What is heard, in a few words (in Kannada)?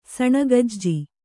"ಸಣಗಜ್ಜಿ", Kannada